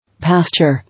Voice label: "Pasture", English

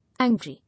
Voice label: "Angry", English

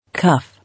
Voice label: "Cuff", English

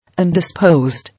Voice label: "Indisposed", English

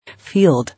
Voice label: "Field", English

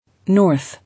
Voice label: "North", English

